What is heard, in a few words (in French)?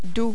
"doux", French